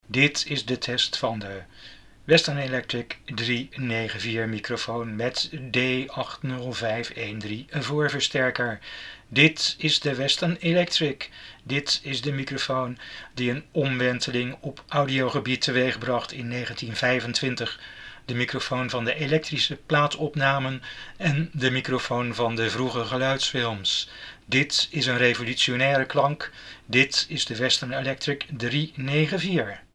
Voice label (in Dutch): Western Electric 394 NL